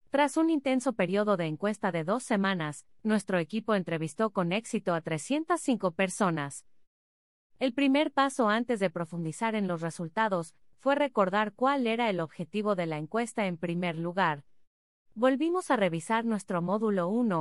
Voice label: Spanish (LA) Female

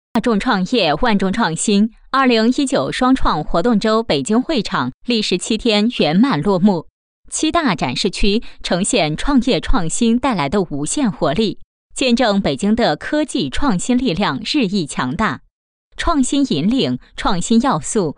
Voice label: Chinese Female

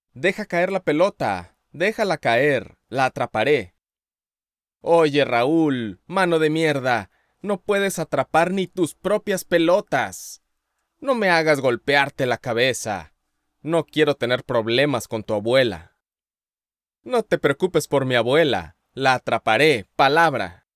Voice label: Spanish (LA), Male

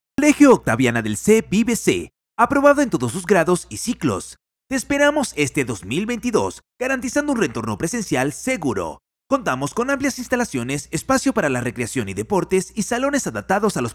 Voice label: Spanish (LA), Male

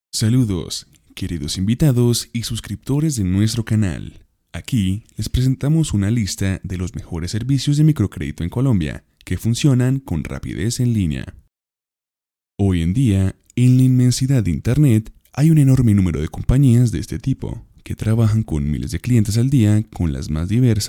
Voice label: Spanish (LA), Male

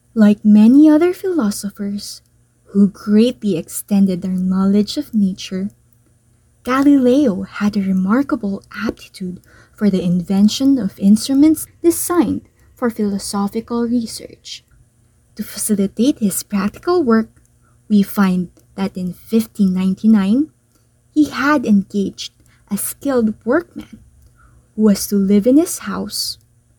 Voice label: English (Asian), Female

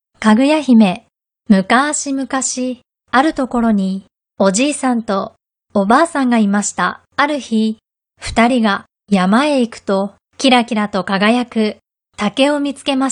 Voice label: Japanese, Female

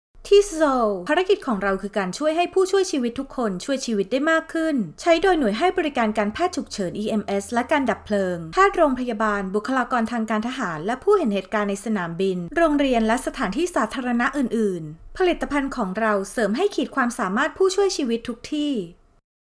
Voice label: Thai, Female

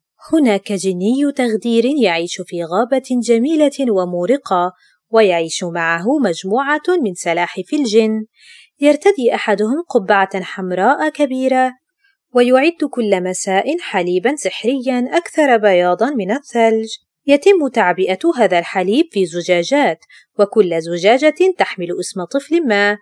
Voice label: Arabic, Female

